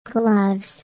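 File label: "gloves", English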